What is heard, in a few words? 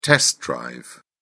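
"test drive", English, British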